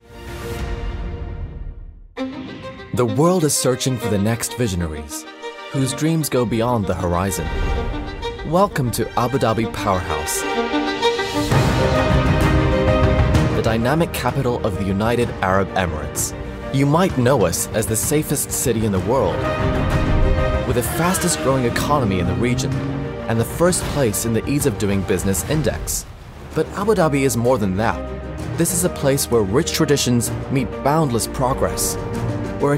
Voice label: male, Arabic and English, transatlantic accent; abu dhabi powerhouse